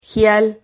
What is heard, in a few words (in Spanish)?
"gial", Silabas